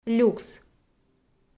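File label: "люкс" Komi, female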